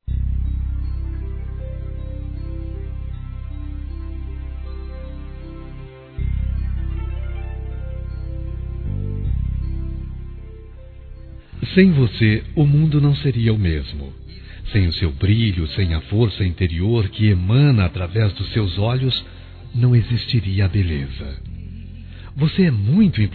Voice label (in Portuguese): Aniversário Tio Masculino; Aniversários; Aniv Familiar